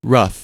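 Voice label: "rough", American English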